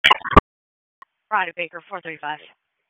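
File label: Raleigh Police Southeast District Dispatch, p25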